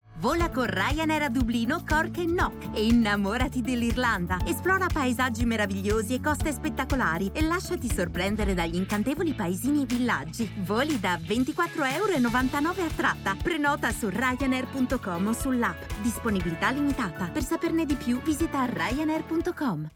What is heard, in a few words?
Italian, F, Radio AD, voice-over